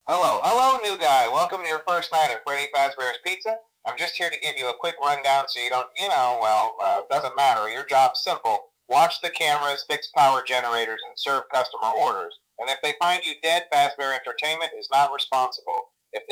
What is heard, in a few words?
phone call; fnaf